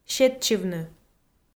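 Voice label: "сетчывны", Komi, female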